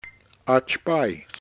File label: "AachPai", Kumeyaay; Believe agree